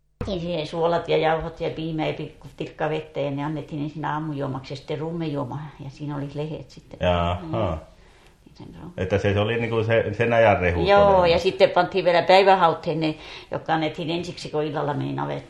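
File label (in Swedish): Övertorneå Norrbotten, dialekter; Folkminnen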